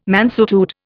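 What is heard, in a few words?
"mansuetude", Indian English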